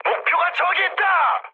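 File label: Korean near waypoint mood high, speech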